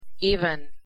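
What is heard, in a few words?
pronunciation "even", English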